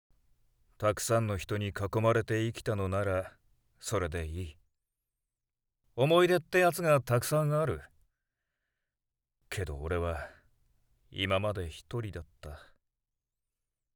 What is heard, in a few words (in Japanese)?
セリフ, 中年; 「後悔する男」